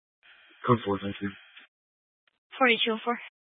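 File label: Sheriff Dispatch, Scanner audio recording archive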